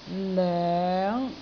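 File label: "loeng5", Cantonese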